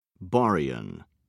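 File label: "baryon", British English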